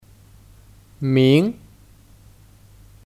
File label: "名", Míng; Kata satuan; Kata satuan untuk profesi